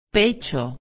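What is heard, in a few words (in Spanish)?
"pecho", Spanish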